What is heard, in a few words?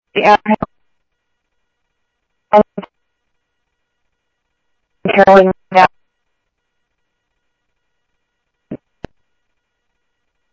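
Sheriff East County, scanner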